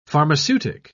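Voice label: "pharmaceutic", English, British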